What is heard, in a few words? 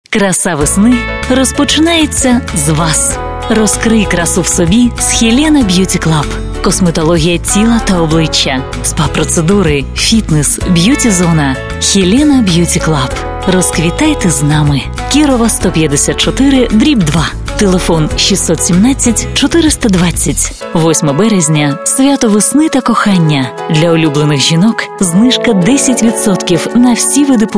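Ukrainian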